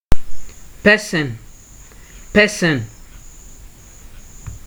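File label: "person", Nigerian Pidgin, male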